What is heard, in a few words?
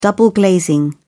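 "double glazing", British English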